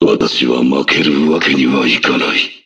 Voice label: Jap; SSB4; Mewtwo